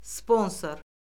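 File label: "sponsor", Azerbaijani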